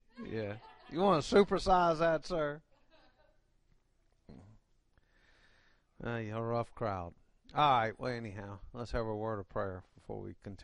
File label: English